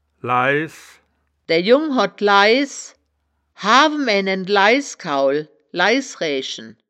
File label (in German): "laiss", wittlich